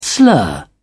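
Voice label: "slur", English, British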